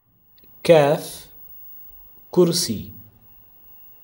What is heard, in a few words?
Kaf, modern standard arabic